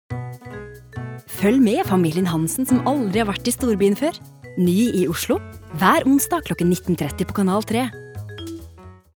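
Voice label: Norwegian promo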